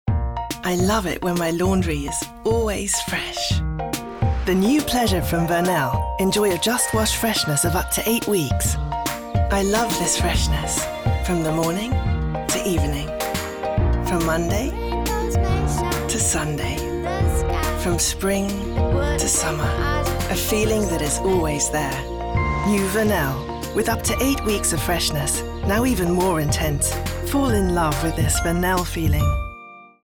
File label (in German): Werbung Englisch; Vernel